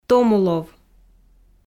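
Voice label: "томулов", Komi, female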